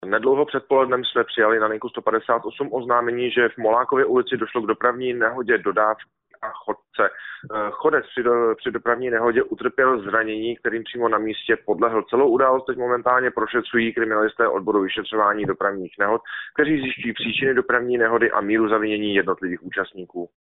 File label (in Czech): telefon; mluvčí pražské policie